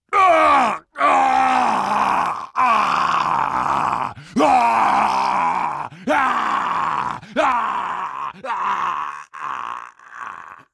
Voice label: painchain, combine soldier; hl2